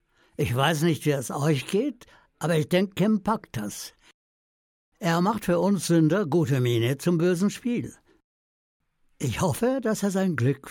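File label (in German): Kommentar Outro Studentenfilm, Sprecher, Männer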